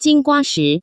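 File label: jingua, Taiwan Chinese; taipei daytrip; sanxia; yingge; jinguashi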